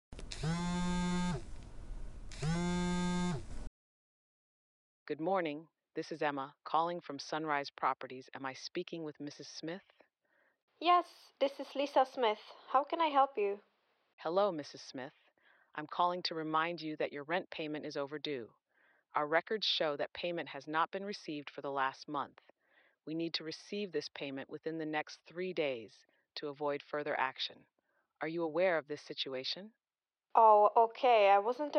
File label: Outbound Soft Collection phone